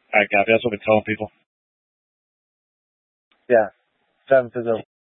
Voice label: Incident Tac 3, scanner